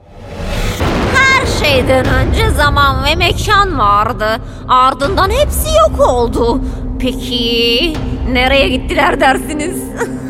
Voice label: animatik karakter, seslendirme

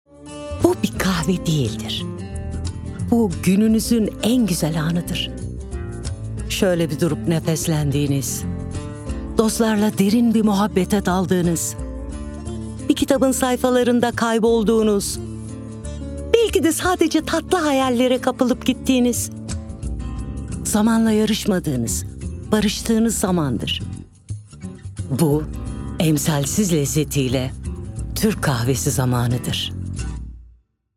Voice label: SICAK SAMIMI; Sesizi Seslendirme Ajansı

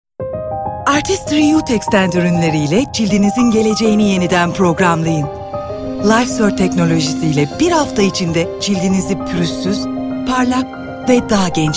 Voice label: neseli tempolu; seslendirme

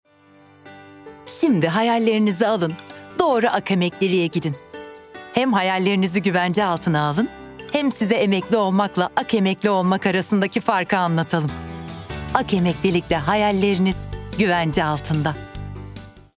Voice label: enerjik esprili, seslendirme

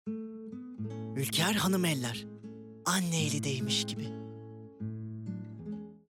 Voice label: sicak samimi

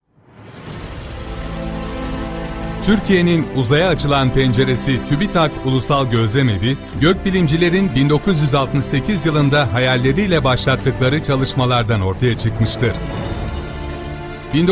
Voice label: tanitim, enerjik tempolu

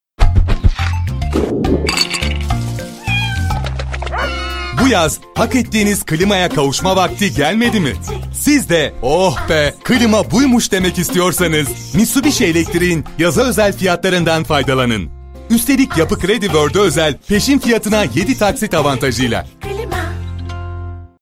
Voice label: enerjik esprili

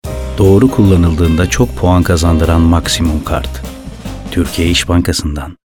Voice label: sicak samimi

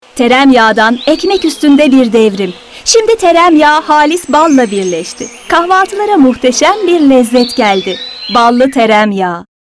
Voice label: enerjik tempolu; seslendirme

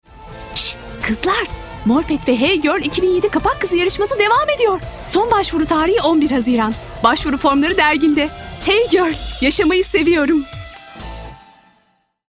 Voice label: enerjik esprili, seslendirme

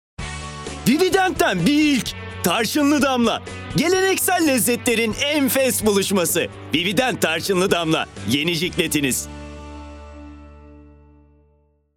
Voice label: kampanya tempolu, seslendirme

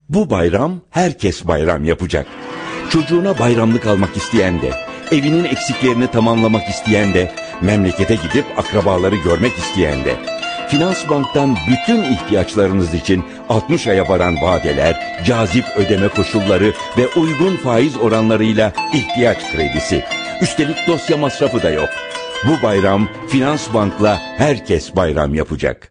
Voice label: neseli tempolu; enerjik tempolu

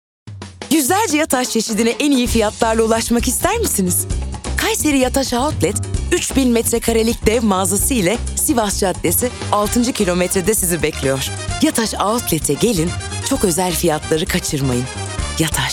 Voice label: tempolu, seslendirme

